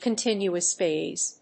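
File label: "continuous-phase", English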